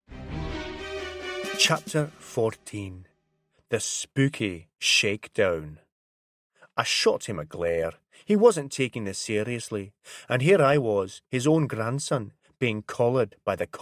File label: Accent Reel Scotland